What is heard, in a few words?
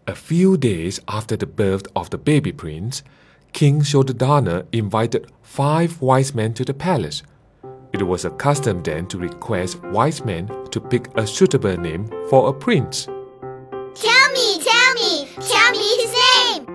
Narration